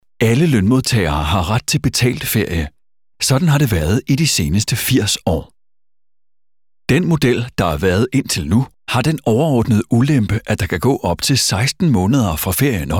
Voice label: danish narration